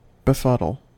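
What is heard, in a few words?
"befuddle", US English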